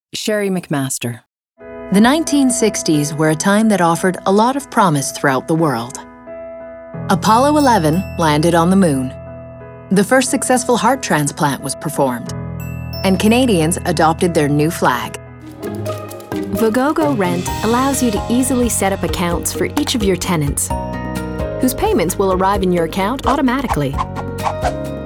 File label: Narration